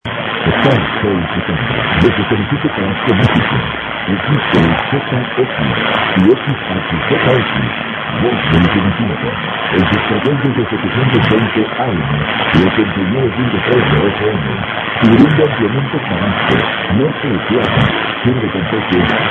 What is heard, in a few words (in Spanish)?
Radio Noticias; XEZX; La Voz De Usumacinta; Tenosique